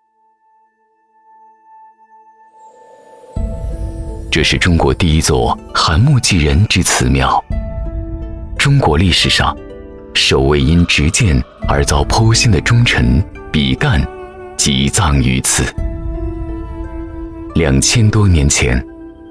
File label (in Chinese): 男505 纪录片; 比干墓